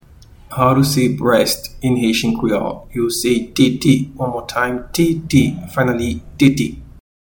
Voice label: "Tete", Haitian Creole; Breast